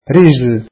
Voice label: arabic leg

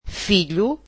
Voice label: "son", Portuguese

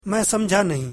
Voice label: urdu I dont understand

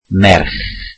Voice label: welsh girl

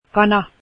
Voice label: chicken, Finnish

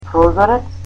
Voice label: "window", Macedonian

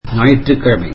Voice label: tamil Sunday

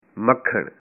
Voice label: "butter", punjabi

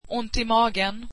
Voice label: stomach ache, swedish

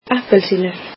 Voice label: icelandic oranges

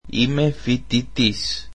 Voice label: greek Im a student

